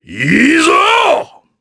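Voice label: Vox Happy Japanese; Ricardo; kingsraid audio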